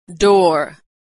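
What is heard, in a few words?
"door", American English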